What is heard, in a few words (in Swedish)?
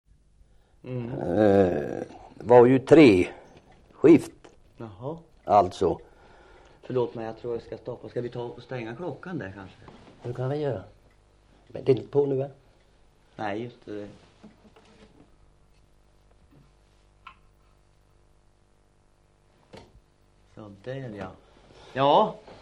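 Norra Vi Östergötland, Svenska sagor, dialekter; Folkminnen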